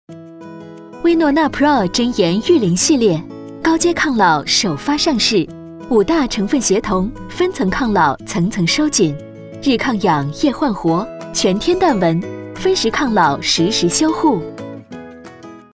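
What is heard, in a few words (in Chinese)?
广告年轻温柔活力抗老; 薇诺娜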